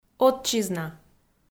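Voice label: "отчизна", Komi, female; kpv; audio dict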